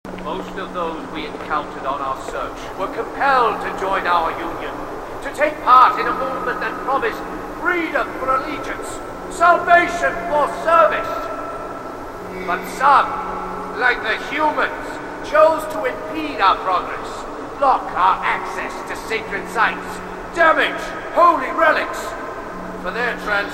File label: regret speech; h2dialogue